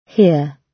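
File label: "here", British English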